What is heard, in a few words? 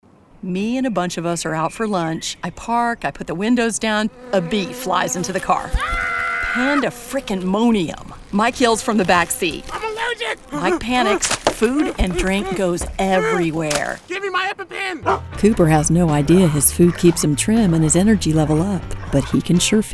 Commercial